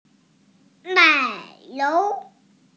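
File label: "nai3niu2", Mandarin Chinese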